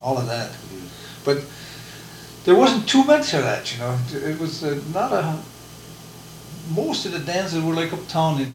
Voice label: Personal history with 66 year old male Buhl Minnesota, american english dialect